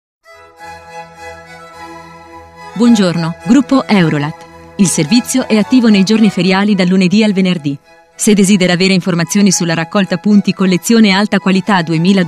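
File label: Italian, female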